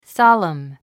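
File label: "solemn", English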